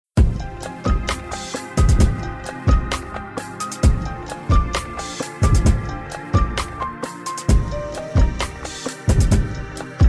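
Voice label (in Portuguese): DIA DOS NAMORADOS CASADOS, female; Telemensagens 2017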